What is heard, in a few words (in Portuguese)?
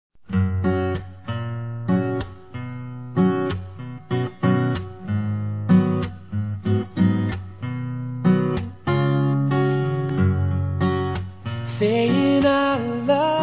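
NEUTRO F; Aniversários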